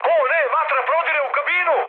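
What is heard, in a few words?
Serbian on fire mood high, speech; War Thunder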